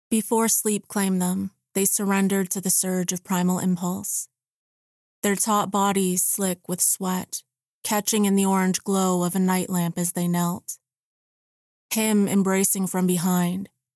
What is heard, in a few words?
Erotica